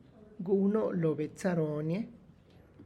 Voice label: Did you see my brother Jeronimo, Tlacochahuaya Zapotec